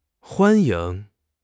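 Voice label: "huan1 ying2", Chinese; HSK2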